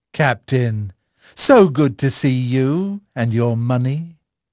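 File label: English male; Pirates Of The Caribbean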